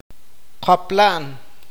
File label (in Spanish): "cavar", awakateko